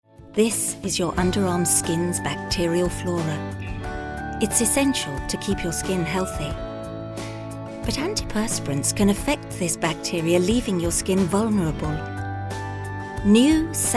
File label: female british english gel comercial; ideasonora